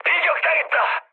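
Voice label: Korean aircraft destroyed mood medium; War Thunder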